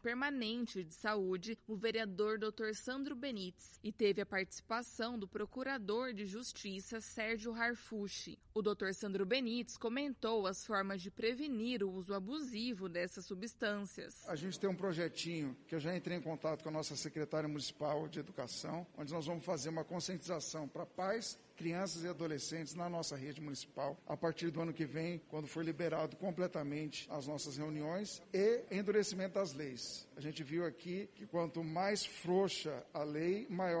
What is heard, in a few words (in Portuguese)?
spot live alcool drogas radio camara